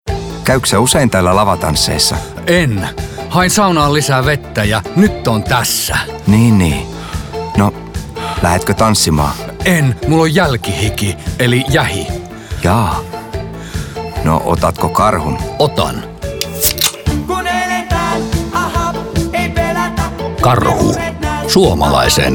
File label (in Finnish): radio; karhu; lavatanssit; sauna